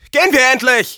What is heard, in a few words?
go, de; Scout